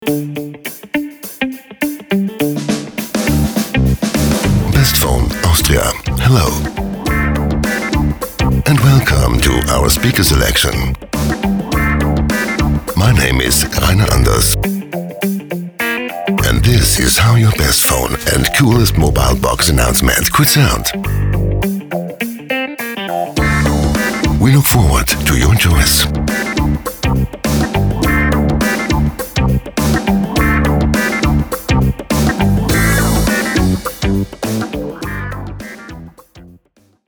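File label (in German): English ANSAGE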